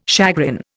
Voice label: "chagrin", Indian

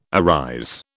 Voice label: "arise", British

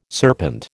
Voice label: "serpent", American

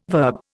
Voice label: "verb", Indian

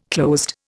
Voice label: "closed", Indian

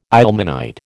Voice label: "ilmenite", American

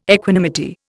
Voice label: "equanimity", Indian

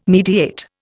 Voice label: "mediate", Indian

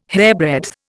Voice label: "hairbreadth", Indian

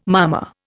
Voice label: "mama", Indian

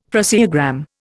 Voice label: "phraseogram", Indian; Dictionary

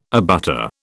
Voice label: "abutter", British

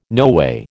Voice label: "noway", American

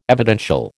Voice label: "evidential", American

